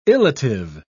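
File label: "illative", British English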